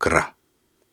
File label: Soft Syllables and Imaginary Words, Viking Vocal